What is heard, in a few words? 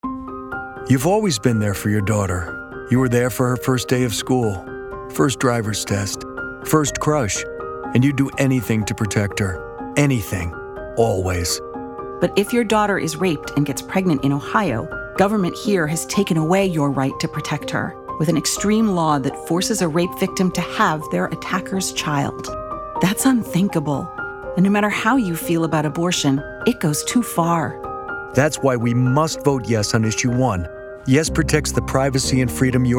radio